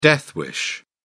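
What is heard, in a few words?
"death wish", British English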